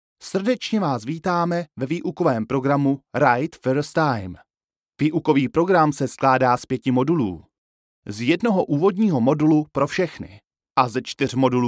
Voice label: E learning; BMW